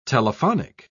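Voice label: "telephonic", English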